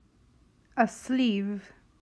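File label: "A sleeve", English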